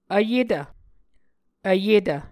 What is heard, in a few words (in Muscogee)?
"vyetv", Muscogee